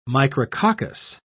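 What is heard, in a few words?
"micrococcus", British English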